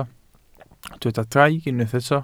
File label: "draig", Welsh